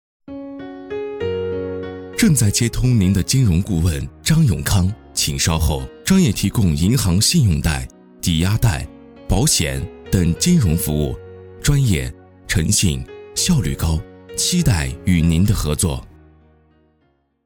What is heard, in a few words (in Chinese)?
彩铃